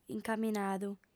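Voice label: "incaminadu", female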